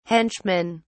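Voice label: "henchmen", British English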